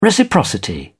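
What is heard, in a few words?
"reciprocity", British English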